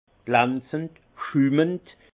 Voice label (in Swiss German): "schumend", Alsace; vin; perlant